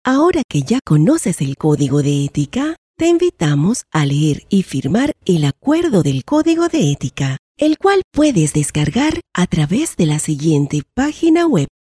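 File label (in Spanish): e-learning, Venezuela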